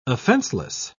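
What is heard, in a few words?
"offenseless", British English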